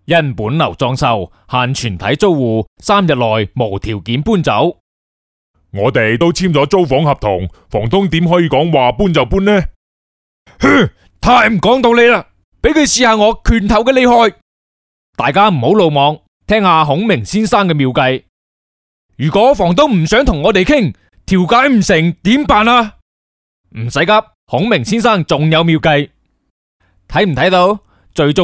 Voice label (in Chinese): 男粤 动画 角色; 粤语; 刘关张人物角色